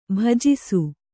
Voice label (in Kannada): "ಭುಜಿಸು", Kannada